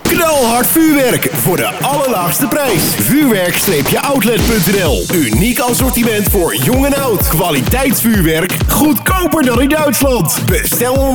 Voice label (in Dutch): VUURWERKOUTLET ALLE FILIALEN, adverteren op de radio